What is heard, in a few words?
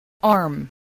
"arm", English